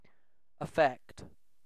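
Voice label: "affect", British English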